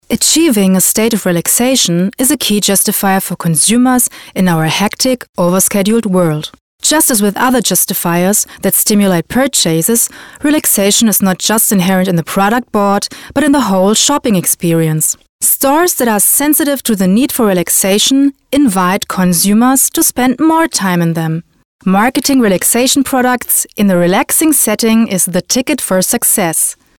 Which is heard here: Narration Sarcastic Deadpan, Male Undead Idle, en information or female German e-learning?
en information